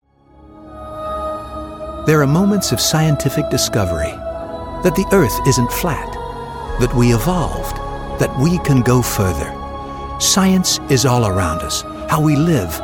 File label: advert; discovery hd